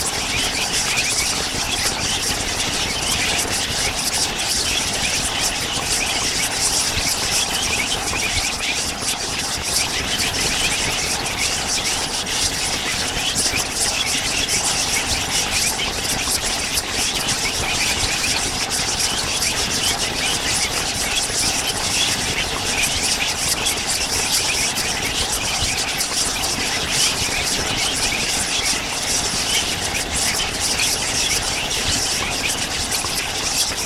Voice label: Deafening Whispers

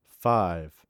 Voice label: "Five", M

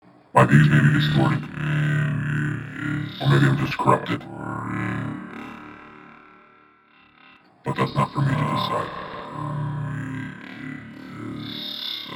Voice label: Corrupt Robotic Vocals

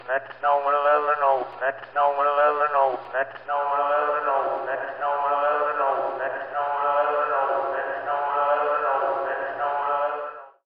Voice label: Police Speech Slow Reverse Reverb

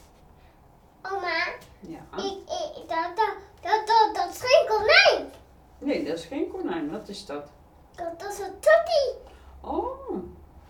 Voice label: Dutch Girl And Grandma Talk

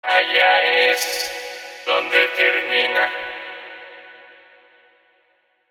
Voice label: Processed Vocoder Voice

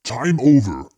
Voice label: Time Over Deep Voice

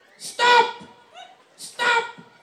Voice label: Voice Shouting Stop

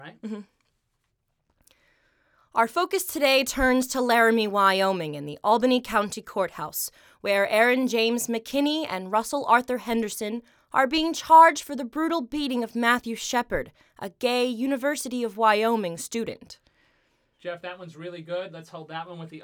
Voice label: Female News Report

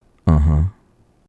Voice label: Man Saying Uh Huh Voice Overs Actor

